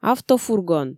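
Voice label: "автофургон", Komi, female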